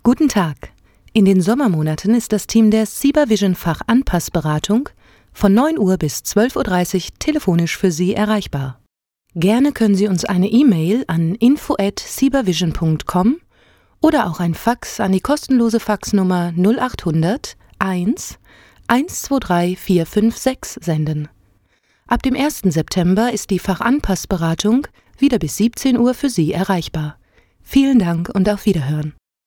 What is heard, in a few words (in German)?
ansage; cibavision